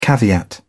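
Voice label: "caveat", British English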